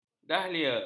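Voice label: "dahlia", Malay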